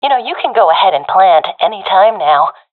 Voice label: friend plant bomb, professional fem; vo; Counter Strike Model Pack; CS:GO